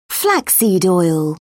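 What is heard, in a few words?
"flaxseed oil", British English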